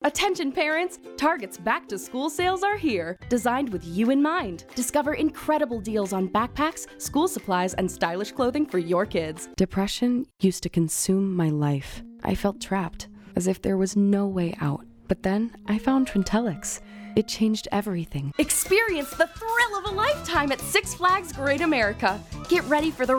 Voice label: Voiceover, Commercial, Women; Chicago

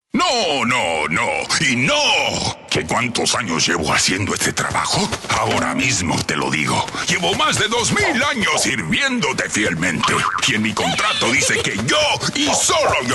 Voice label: Voiceover, Foreign Language, Spanish; new york

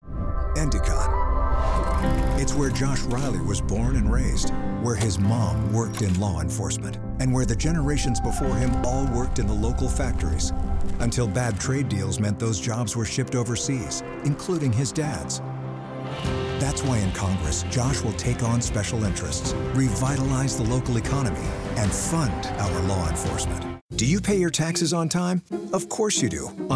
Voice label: Voiceover, Promos, Network/cable, Men; New York

